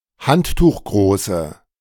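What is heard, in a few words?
"handtuchgroße", German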